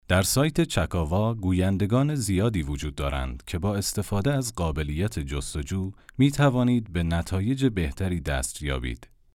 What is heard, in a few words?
reportage, english, male narrators